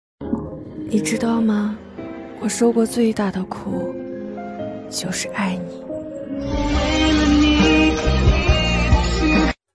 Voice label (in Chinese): 酸楚 恋爱 柔情少女声